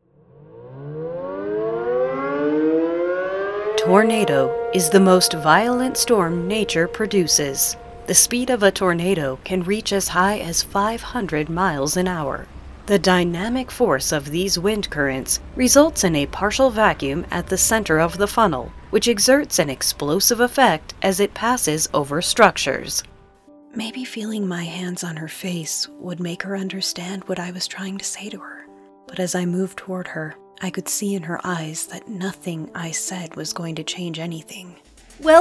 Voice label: english american narration